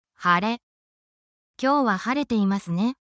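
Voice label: Japanese word, japanese phrases; lets talk weather essential japanese phrases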